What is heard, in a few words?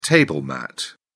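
"table mat", British English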